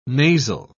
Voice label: "nasal", pronounce

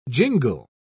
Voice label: "jingle", pronounce

